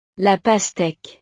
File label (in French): "la pasteque", frances; frutas